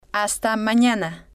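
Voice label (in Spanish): "hasta manana", spanish, female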